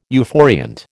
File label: "euphoriant", American